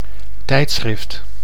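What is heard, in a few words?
"tijdschrift", Dutch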